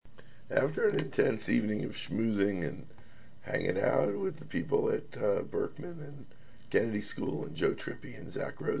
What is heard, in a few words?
Sexy Voice